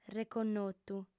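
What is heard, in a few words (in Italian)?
"reconnotu", lingua sarda, fem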